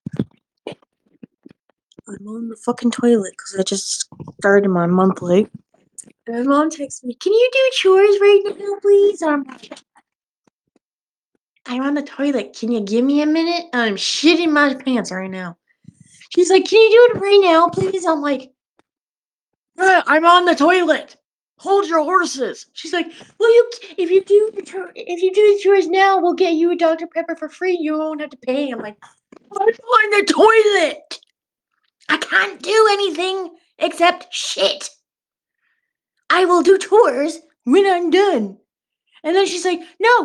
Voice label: voice message; Discord; Outcast Gaming